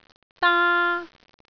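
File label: "daa1", Cantonese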